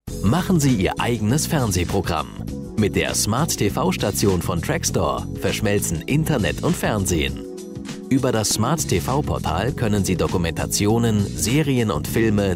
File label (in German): sprecher produktfilm; smart tv